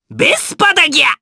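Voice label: Vox Japanese; Lakrak; Vespa; kingsraid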